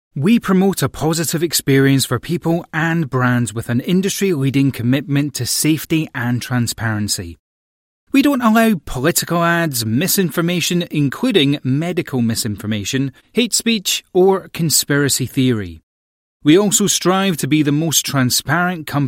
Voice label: english british others

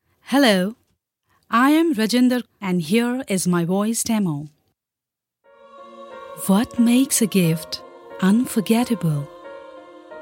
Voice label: english commercial

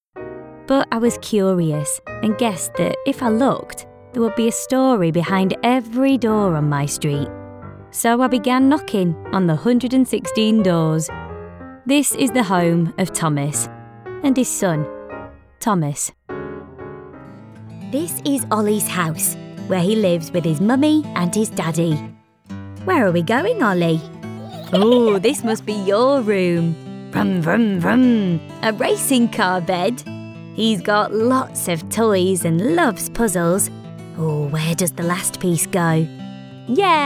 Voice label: english british narration

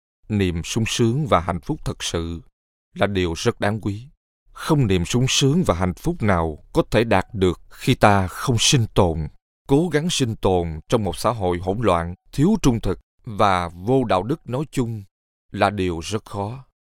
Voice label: Vietnamese audiobooks; vietnamese voice over talent

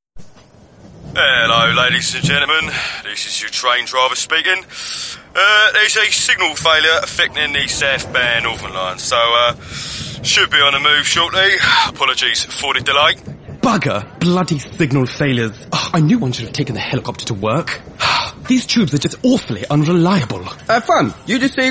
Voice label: english british video games; english british voice actors

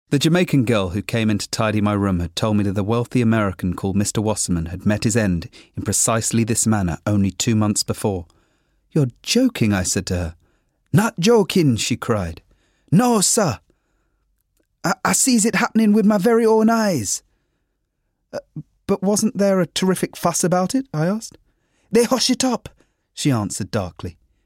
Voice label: english british audiobooks

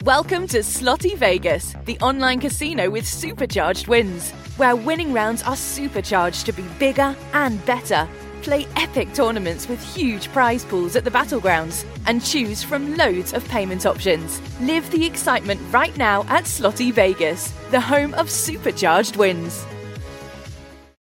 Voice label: english british promos

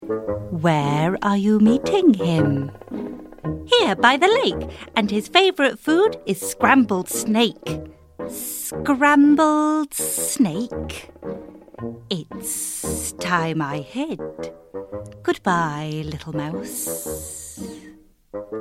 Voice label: english british animation

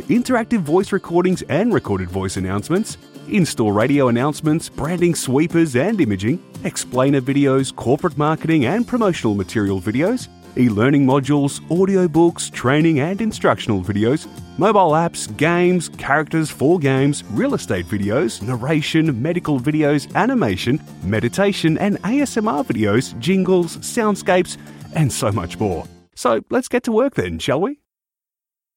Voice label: english australian commercial

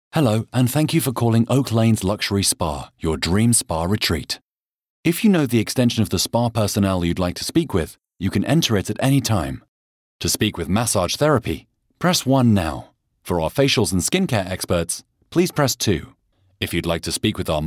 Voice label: english british ivr

